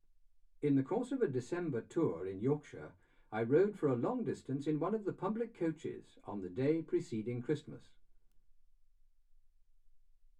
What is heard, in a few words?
male speech english